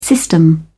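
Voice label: "system", British English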